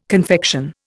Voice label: "confection", Indian